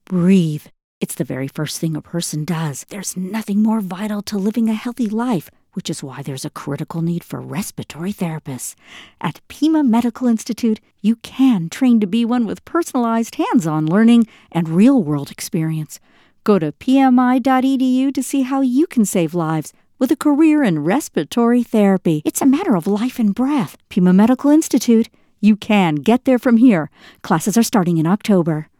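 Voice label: English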